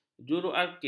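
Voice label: "juruarkib", Malay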